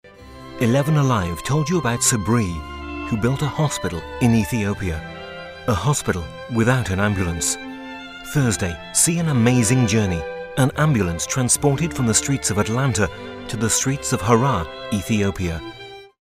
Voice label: imaging

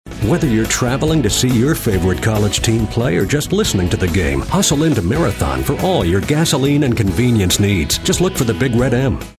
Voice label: friendly genuine

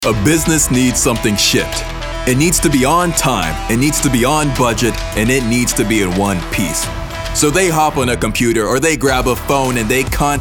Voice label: Showcase, storyteller

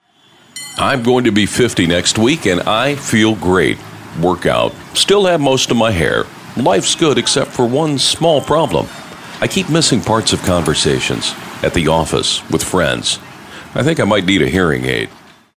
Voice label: real people mature

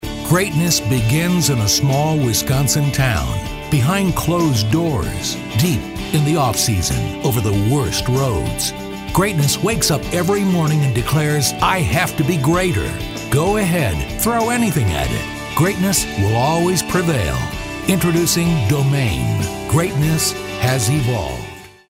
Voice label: narrative

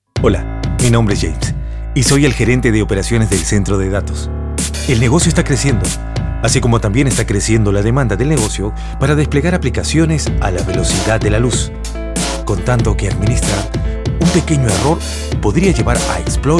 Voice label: Spanish Testimonial Ad

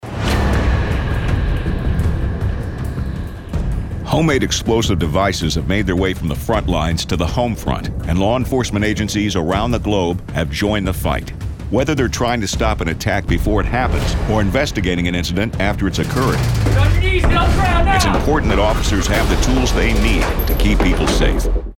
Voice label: Intense Situation

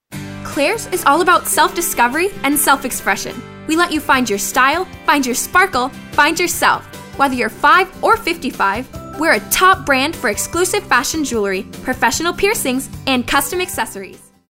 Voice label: perky

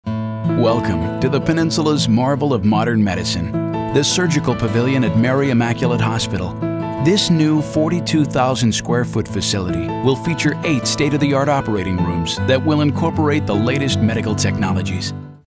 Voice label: narrative

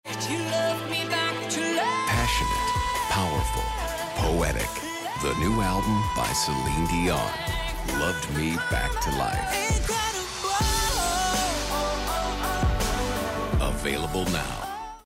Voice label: Smooth

